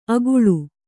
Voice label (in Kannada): "ಅಗುಳು", Kannada